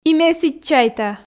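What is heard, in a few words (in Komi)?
и ме сідз чайта, Komi, female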